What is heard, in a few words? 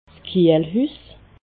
"kiehlhus", alsace